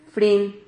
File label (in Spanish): "frin", Silabas